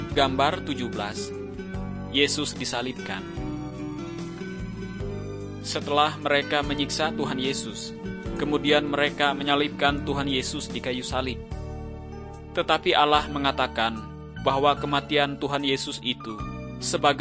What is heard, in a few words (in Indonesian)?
kabar baik good news bahasa indonesia